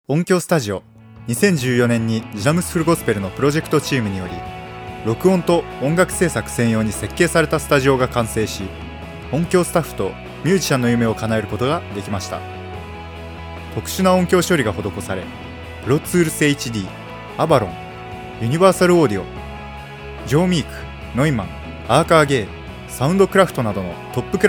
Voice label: VoiceOver